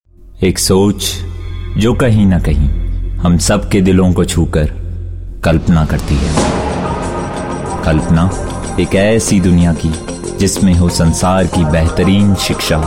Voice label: male voice

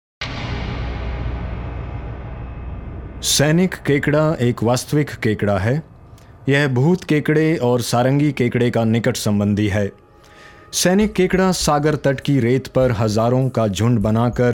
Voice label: narration hindi, male voice; gurgaun

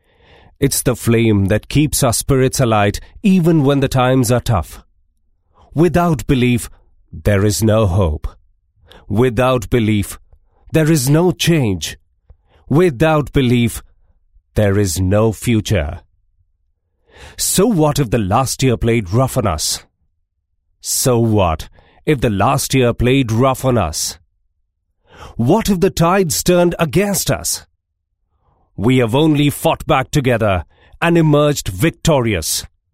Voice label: english, male voice

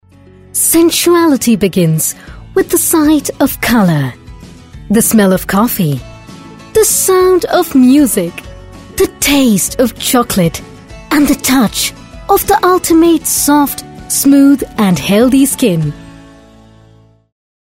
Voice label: Eng sample, female voice

